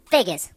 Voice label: "Drat", Mobster